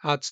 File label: Male